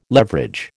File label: "leverage", American